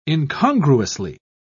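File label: "incongruously", British English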